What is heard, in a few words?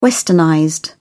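"westernized", British English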